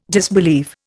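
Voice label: "disbelieve", Indian English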